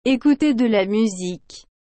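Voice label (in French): "ecouter de la musique", French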